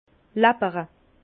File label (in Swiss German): "lappere", Bas Rhin; vin; siroter